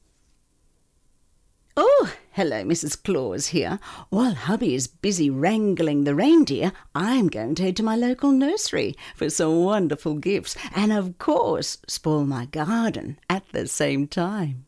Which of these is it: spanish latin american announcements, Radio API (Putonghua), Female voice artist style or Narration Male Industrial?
Female voice artist style